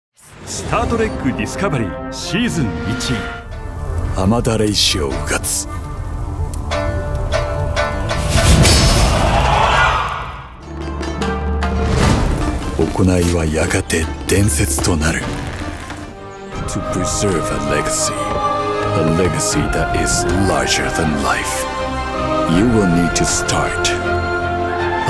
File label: trailer